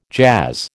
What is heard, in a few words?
"jazz", American